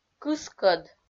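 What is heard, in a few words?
"кыскӧд", Komi-Zyrian, female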